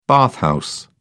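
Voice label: "bathhouse", British English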